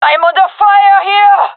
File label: takingfire, gendermerie fem; vo